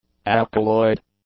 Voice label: "alkaloid", English; adjectives